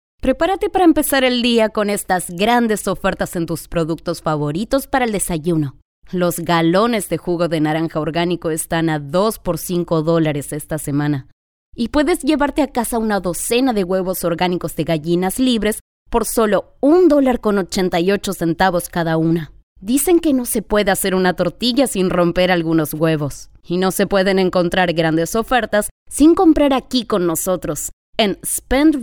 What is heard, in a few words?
Casual Conversational